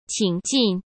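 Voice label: "请进", Mandarin Chinese; hsk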